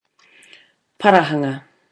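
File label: "parahanga", Māori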